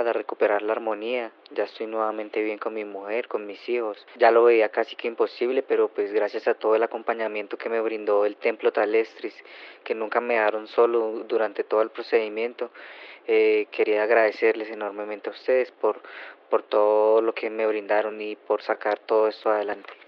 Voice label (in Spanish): hombre